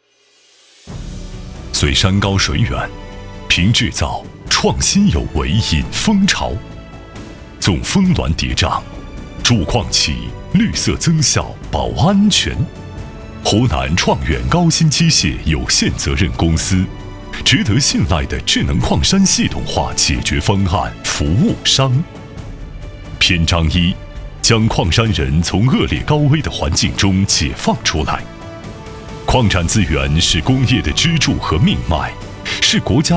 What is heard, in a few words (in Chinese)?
男,企业专题; 湖南高新